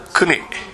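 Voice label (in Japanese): "kune", 南山形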